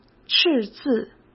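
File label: "赤字", Mandarin Chinese; hsk; chinese flashcards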